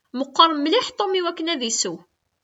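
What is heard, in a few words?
Kabyle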